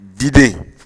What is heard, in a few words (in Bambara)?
"dide", Bambara; animaux; Mots Bambara